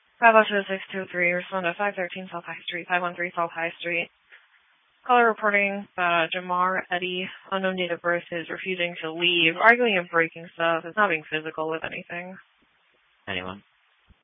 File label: Police Dispatch